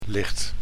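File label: "licht", German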